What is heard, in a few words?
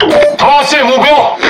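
Speech Detection Enemy Any Ship, Chinese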